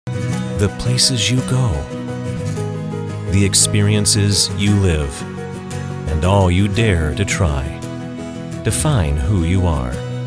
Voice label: Commercials